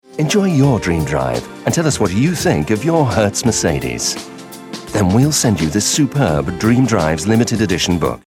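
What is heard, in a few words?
British commercial soft sell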